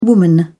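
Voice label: "woman", British English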